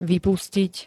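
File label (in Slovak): "vypustiť", Slovak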